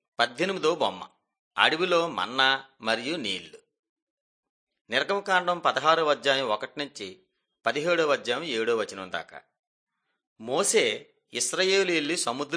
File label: telugu bangladesh; mighty men of god